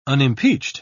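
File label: "unimpeached", British English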